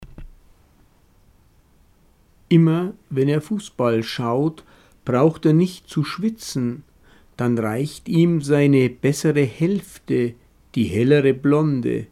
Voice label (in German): hochdeutsch; Fuasboi